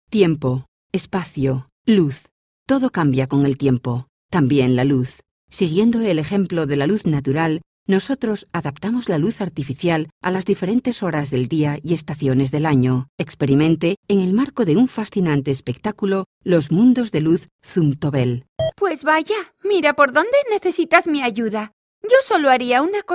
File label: Spanish